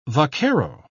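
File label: "vaquero", British English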